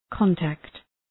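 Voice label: "contact", English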